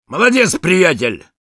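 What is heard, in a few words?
Sniper nice shot, Russian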